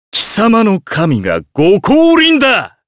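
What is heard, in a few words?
Vox Japanese; Kain; kingsraid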